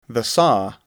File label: "saw", English